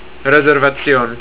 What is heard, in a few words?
RESERVATION, estonian